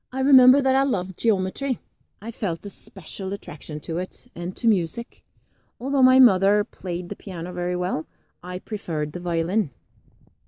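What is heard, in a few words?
Norwegian English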